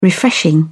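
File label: "refreshing", English, uk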